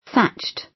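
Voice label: "thatched", British English; Design and innovation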